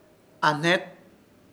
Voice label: "AHNET", Ishir Chamacoco; palo